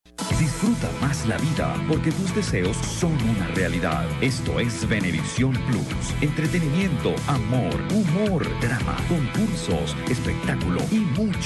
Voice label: Promo; Venevisión Plus

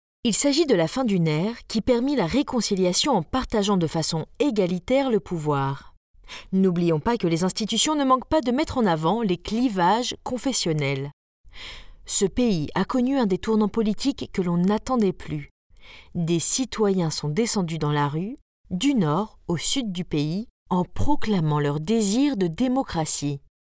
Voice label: Documentary; politics

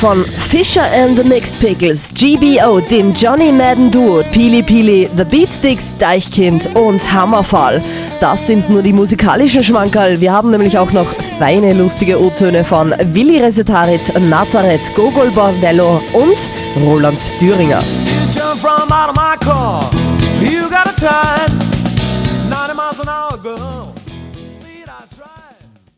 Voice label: Radio

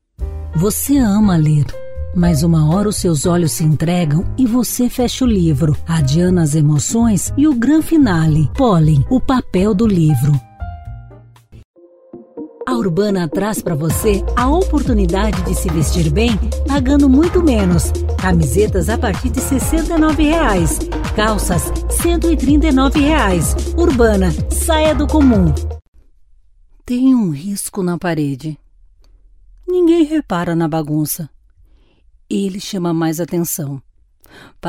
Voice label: Publicitário Brazillian Voice

